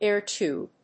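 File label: "air tube", English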